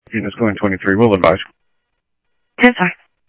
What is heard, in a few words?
Sheriff, scanner audio